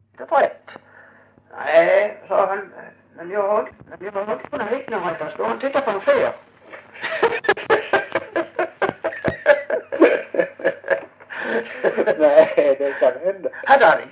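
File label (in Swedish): Hörja Skåne, dialekter; Folkminnen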